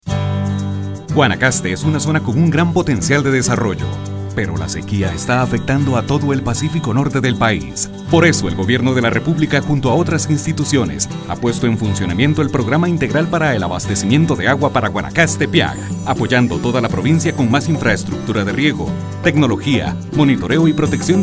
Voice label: Radio spot